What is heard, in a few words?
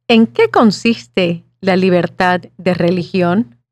Spanish